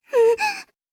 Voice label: h voice; おっぱい図鑑